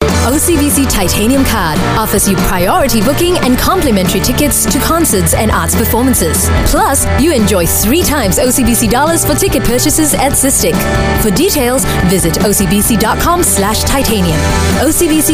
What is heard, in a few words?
Asian English Sophisticated; OCBC Titanium